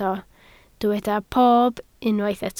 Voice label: "pob", Welsh